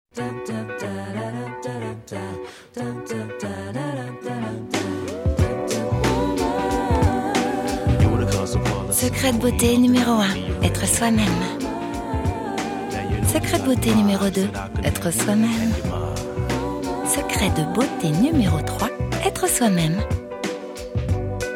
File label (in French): aerienne feminine; Yves Rocher